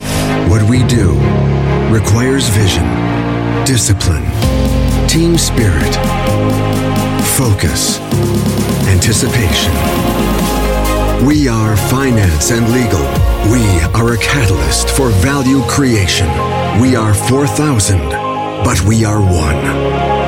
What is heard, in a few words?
US English; LOreal